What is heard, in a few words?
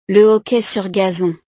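"le hockey sur gazon", French; deportes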